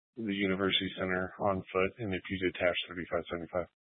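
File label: Police 1 Dispatch, Scanner audio recording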